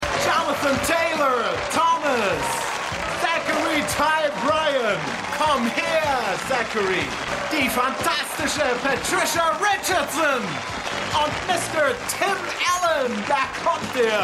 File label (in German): Ansager; Umleitung; You're Driving Me Crazy, You're Driving Me Nuts; Tim Taylor